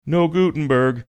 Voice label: singsongy; no gutenberg

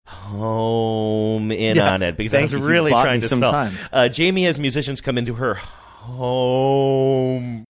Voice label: saying home drawn out manner twice

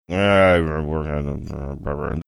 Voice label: mumbling

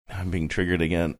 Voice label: quietly saying now im being triggered again

